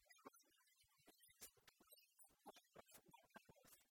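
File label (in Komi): кутшӧмӧсь овлӧны коръясыс тулыснас гожӧмнас да арнас, Komi, female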